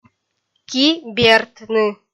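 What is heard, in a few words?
"ки бертны", Komi, female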